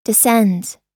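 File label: "descends", US English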